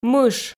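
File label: "мыш", Komi, female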